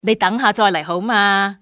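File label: "leave me", female; XBOX; civ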